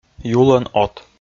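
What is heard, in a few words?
"юлӧн от", Komi, male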